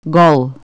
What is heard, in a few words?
naked, Bulgarian Consonants